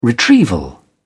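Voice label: "retrieval", British English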